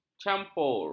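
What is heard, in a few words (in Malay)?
"campur", Malay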